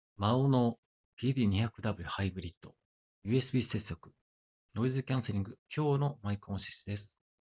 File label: maono pd200w hybrid usb microphone sound quality